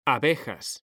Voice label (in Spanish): "ABEJAS", H; mekanta